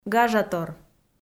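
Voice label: "гажатор", Komi, female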